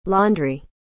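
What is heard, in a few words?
"laundry", English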